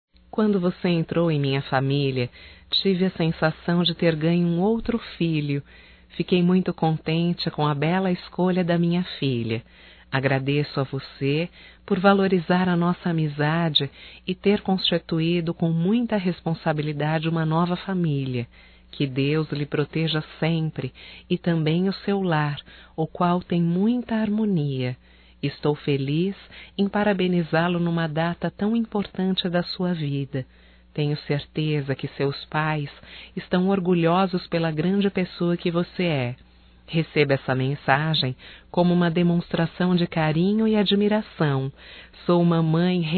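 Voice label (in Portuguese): female, Telemensagens Aniversarios; Telemensagens 2017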